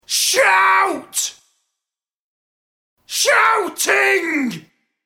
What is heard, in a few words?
Male Shout, The words ‘Shout’ and ‘Shouting’ yelled in male voice.; Yelling